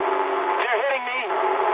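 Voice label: aircraft damaged mood low, speech English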